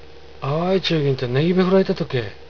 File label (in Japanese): あわいちゅーぎんた、ネギ屁ふらいたとけぇ。, 有田弁; あいつといったら、ネギ屁をこいていったぞ。; へーふる、へぶった; 屁をこく、屁をこいた